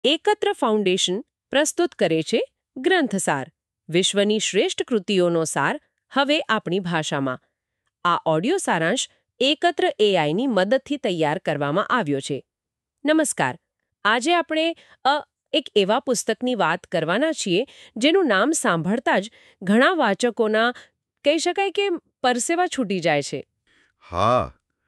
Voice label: gujarati; gravity's rainbow